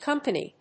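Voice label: "companie", English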